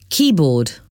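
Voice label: "keyboard", English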